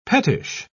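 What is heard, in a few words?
"pettish", English, British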